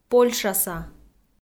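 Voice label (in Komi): "польшаса", Komi, female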